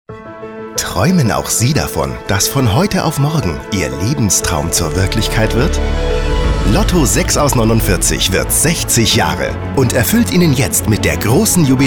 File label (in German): Spot; Lotto Bayern